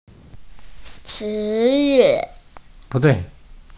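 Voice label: "shi2yue4", Mandarin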